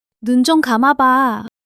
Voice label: excitement; monologue

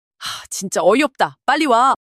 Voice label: anger; monologue

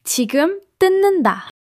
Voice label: excitement; monologue

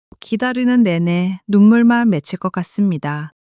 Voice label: crying; monologue

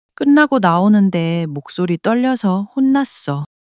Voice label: sadness, monologue

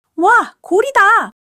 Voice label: excitement, monologue

